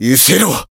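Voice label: Voice finish Japanese; jade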